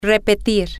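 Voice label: to pronounce, spanish, female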